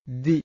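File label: "dvi", Pali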